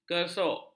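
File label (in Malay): "kersuk", Malay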